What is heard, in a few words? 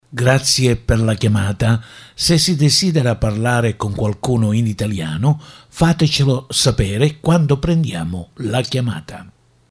Male Italian